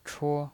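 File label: "chuo1", Mandarin pinyin voice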